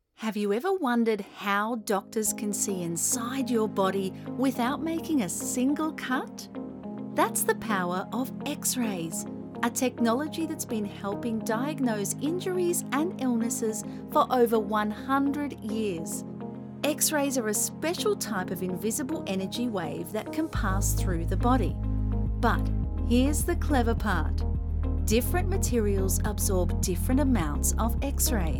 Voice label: explainer xray